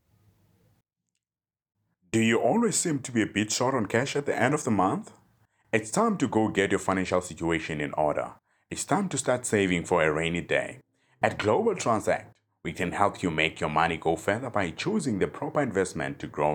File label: VOICE OVER